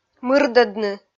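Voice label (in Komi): "мырдӧдны", Komi, female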